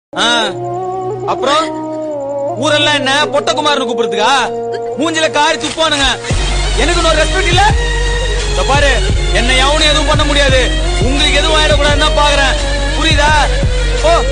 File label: dilouge attitude tamil; pudhupettai; dhanush